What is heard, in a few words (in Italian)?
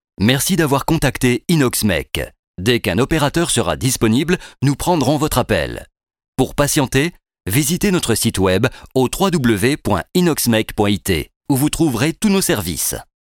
francese Maschile